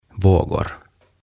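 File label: "Vågor", "Aallot", Swedish, Finnish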